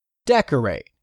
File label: "decorate", English; 3000 words